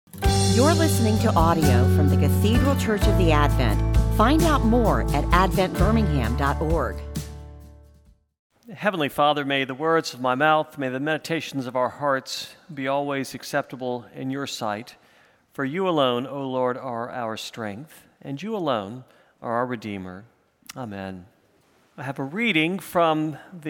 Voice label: Sermon